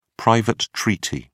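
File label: "private treaty", British English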